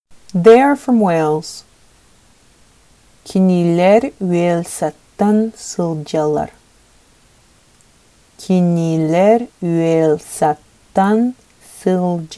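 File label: Sakha They are from Wales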